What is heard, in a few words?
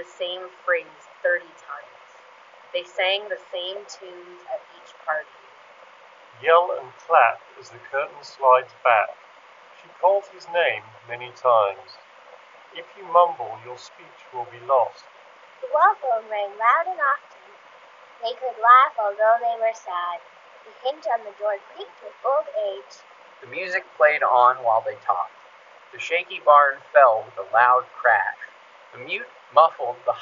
JBL Reflect Flow pro Wind microphone sample